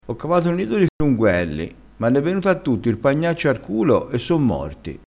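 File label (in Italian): "pagnaccio", dialettale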